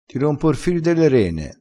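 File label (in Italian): "fir delle rene", vocabolario dialettale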